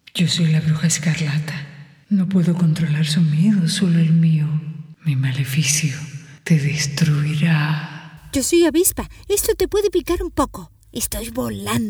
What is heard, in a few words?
spanish latin american impersonations; locución y periodismo; presentadora de radio